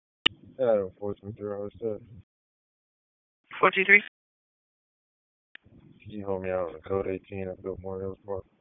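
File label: Police Dispatch, p25; Raleigh Police Southeast District Disp; wake p25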